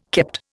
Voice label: "kept", Indian